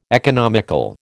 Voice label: "economical", American

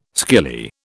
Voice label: "skilly", British

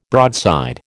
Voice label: "broadside", American

